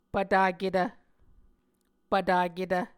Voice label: "pvtaketv", Muscogee

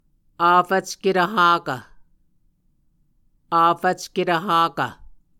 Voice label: "afvcketv hakv", Muscogee; Mvskoke Words with Voicing Consonants; Onvkv Ohhvyayices

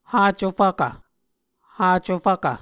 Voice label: "hacofvkv", Muscogee

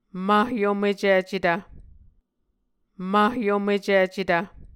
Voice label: "mahyomecicetv", Muscogee

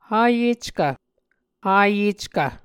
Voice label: "hayeckv", Muscogee

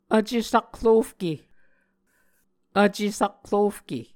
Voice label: "vce sakrofke", Muscogee

